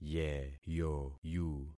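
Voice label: "yeyoyu", concatenated syllables; Affixing experiment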